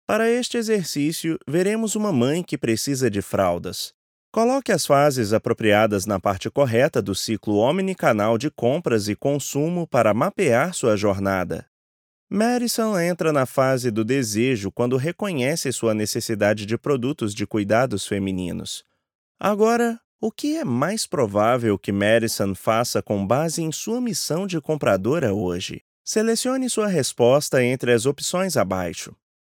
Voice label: portuguese brazilian e-learning